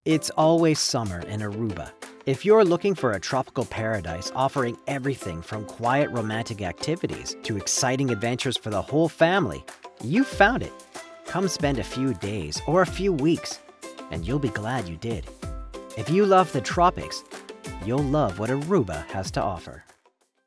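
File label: Ad with music; Aruba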